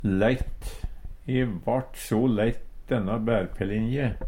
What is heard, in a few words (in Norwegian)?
leitt, Numedalsmål; E vart so leitt denne bærpelinje.; Adverb